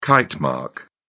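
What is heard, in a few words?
"kitemark", British English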